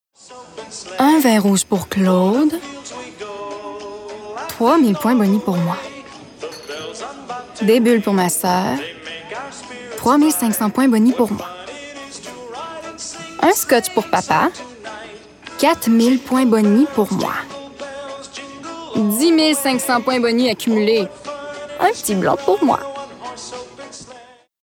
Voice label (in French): annonceur comedie promo amical avec sourire affirme medium; saq points bonis; adulte